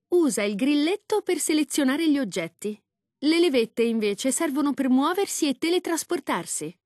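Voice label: select, Italian (Italy); retaildemo; Windows; Mixed Reality Learning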